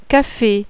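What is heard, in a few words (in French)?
"café", French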